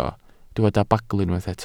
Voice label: "bagl", Welsh

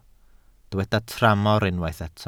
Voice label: "tramor", Welsh

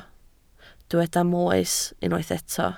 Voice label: "moes" Welsh

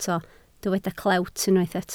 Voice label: "clewt", Welsh

